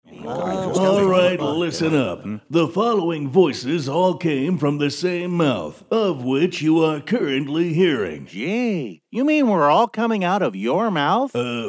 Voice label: english american animation; professioneller amerikanischer Synchronsprecher

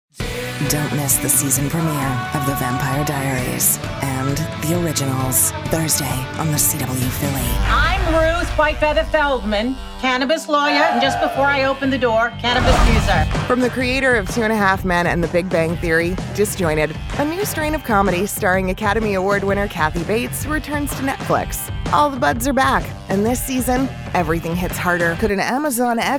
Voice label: english american promos

